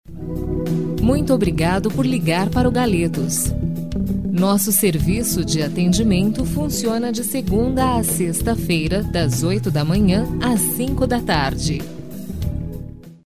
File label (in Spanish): locutora brasilena